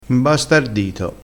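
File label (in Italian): "imbastardito", crespinese dialettale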